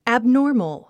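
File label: "abnormal", English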